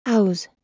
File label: "auz", Proto Germanic